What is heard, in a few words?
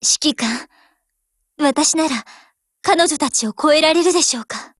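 WIN, Japanese; GF Alarm; AR15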